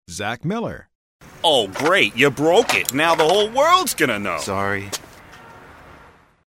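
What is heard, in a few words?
upset, voice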